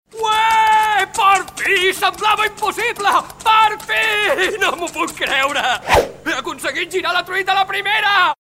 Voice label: male catalan food comercial; ideasonora